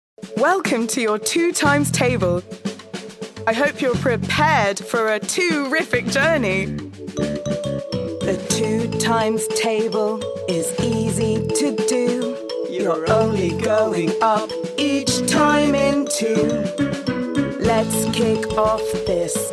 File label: Kids Musical Audiobook